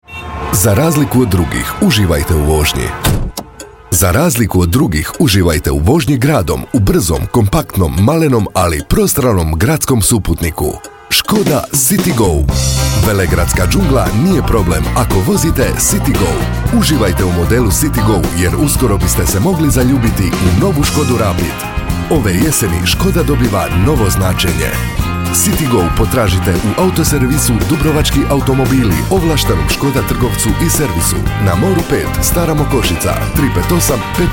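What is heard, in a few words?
Croatian commercial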